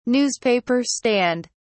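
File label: "newspaper stand", British English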